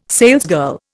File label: "salesgirl", Indian